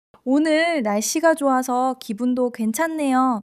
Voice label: joy

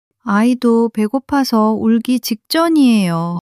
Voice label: sadness, monologue

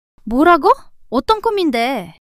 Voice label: fluster

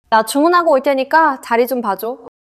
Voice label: neutral, monologue

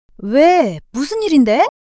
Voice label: fluster, monologue

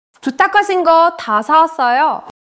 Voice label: neutral, monologue

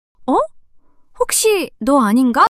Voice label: surprise, monologue

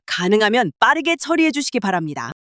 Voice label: anger

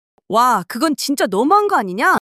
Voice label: anger; monologue

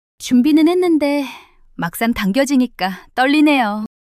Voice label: shy; monologue

